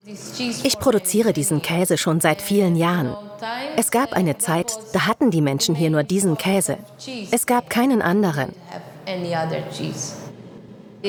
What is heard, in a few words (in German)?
Overvoice warm entspannt; Traumorte Malta Kaesemacherin; 3sat